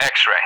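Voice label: "X-ray", English; Alphabet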